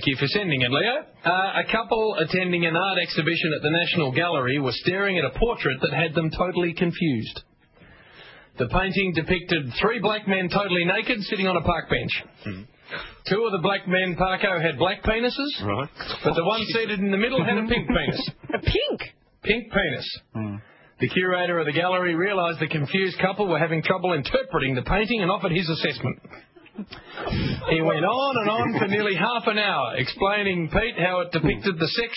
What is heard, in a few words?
aussie radio joke